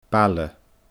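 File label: "baile", Scottish Gaelic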